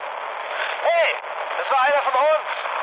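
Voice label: German player killed ally mood low, speech; War Thunder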